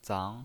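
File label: "zang" Mandarin Chinese pinyin voice, second tone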